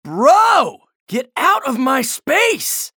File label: chatter negative; anarki; respawn